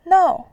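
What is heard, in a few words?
"nou", Mandarin, tone 4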